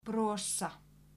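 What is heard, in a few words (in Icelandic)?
"brosa", Icelandic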